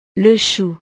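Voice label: "le chou", French; verduras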